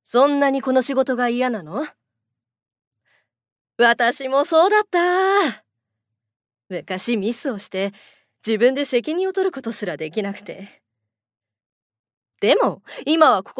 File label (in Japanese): ボイスサンプル 母イメージ